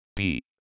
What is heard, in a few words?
"pii", Hindi